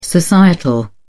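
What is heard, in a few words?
"societal", British English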